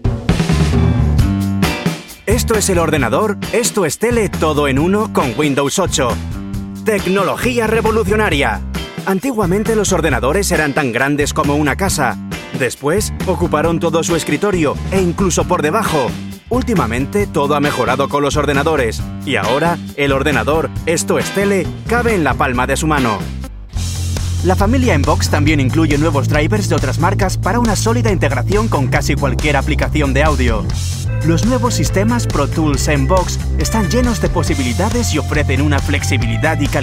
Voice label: Narración dinámica

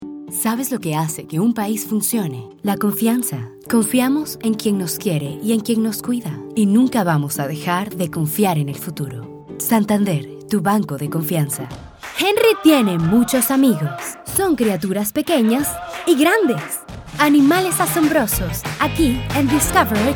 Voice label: Español

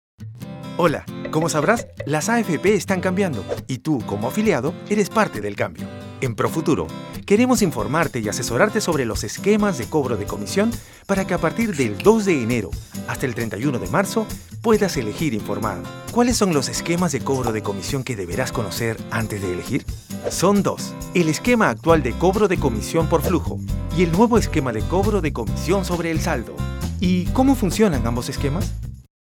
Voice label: Video Instructivo Didactico; AFP

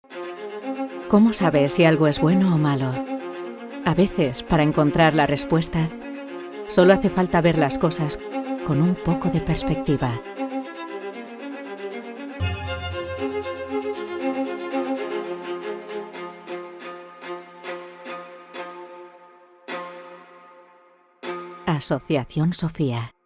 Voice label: spot ultraprocesados; asociacion sofia

